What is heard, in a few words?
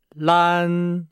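"lan", Chinese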